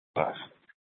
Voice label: Mesa PD Central Dispatch, scanner